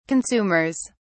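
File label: "consumers", British English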